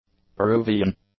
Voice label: "Peruvian", english; adjectives